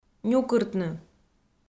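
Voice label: "нюкыртны", Komi, female; kpv audio dict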